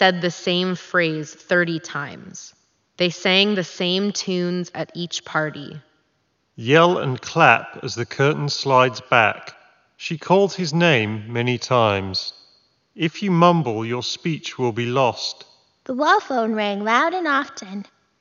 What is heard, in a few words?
Reverb microphone sample; Logitech G735